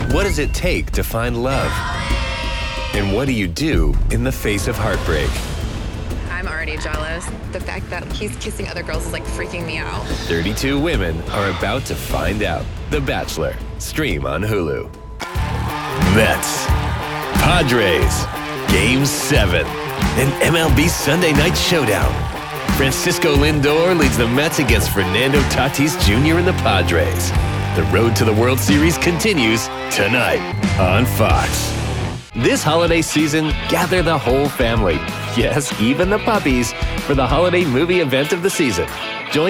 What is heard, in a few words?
Promo